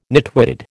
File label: "nitwitted", American